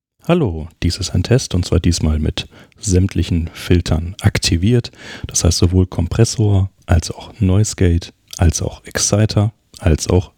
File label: Rode Procaster Dynamics; Rodecaster